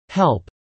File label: "help", English